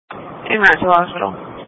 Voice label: EMS Dispatch

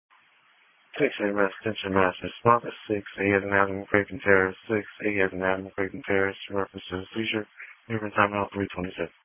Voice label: EMS Dispatch

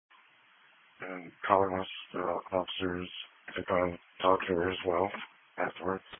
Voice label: Police Patrol 1 (Dispatch)

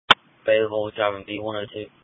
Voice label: New Bern Police Patrol 1 (Dispatch)

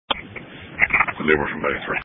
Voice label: EMS Dispatch

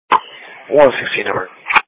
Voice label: Police Patrol 1 (Dispatch)

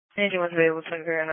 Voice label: Fire Tactical

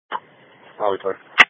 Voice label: Police Patrol 1 (Dispatch)

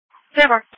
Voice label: Fire Tactical 01

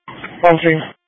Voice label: EMS Dispatch

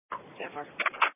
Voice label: Police Patrol 1 (Dispatch)

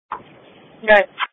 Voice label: Police Patrol 1 (Dispatch)

